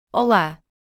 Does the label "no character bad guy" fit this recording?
No